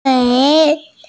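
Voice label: "tui3", Mandarin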